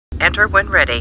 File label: Computer Voice; Star Trek; StarTrekLCAR